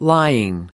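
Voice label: "lying", US English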